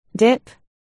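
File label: "dip", spoken word, articulation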